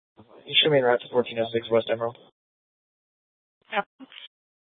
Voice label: Mesa PD Central Dispatch, scanner; TOPAZ Regional Wireless Cooperative (TRWC) - Mesa Simulcast

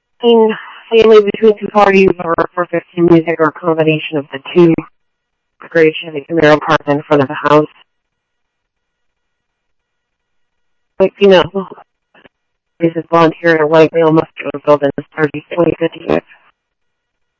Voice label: Police, scanner

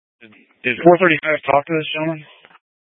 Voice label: Sheriffs Office, scanner

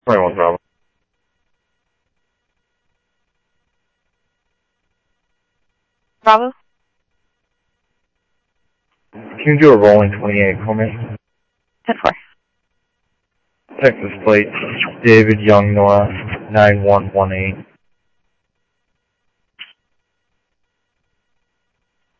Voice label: Phoenix PD, scanner audio

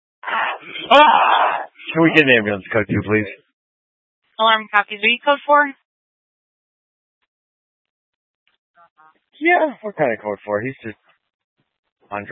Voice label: Fire Departments Mesa Simulcast; TOPAZ

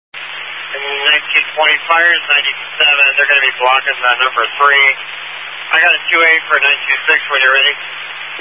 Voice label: Highway Patrol, Phoenix Metro West Area, scanner

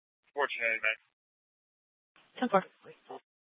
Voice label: PD Dispatch

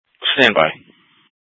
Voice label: Dispatch; Scottsdale

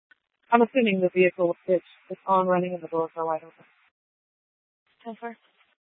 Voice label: Tempe PD, scanner; Rgnl Wireless Co-op (RWC)

